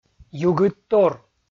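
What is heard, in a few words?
"югыдтор", Komi, male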